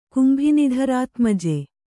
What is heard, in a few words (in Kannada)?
"ಕುಂಭಿನಿಧರಾತ್ಮಜೆ", kannada